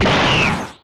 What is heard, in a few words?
girl charge; HeavenStudio; trick Class